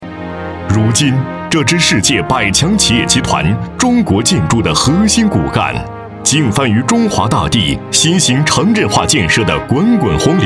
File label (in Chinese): 男国 企业宣传片; 中建集团